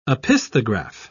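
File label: "opisthograph", British English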